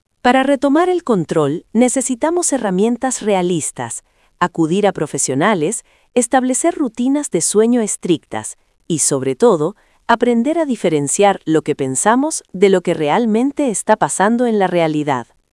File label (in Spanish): locucion